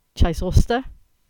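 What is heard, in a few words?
"Chysauster", English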